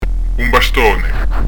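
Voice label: "un bastone", Corsican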